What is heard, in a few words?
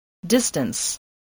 "distance", spelling